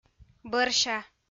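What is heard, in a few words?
"бӧрся", Komi, female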